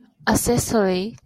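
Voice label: "accessory", Japanese female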